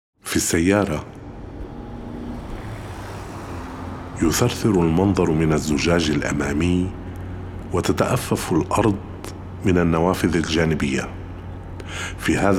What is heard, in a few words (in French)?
En voiture arabe; L'homme sans paysage, battre la campagne